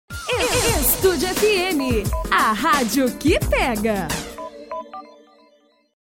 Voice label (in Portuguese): locutora estúdio fm; radio